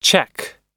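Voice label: "check", American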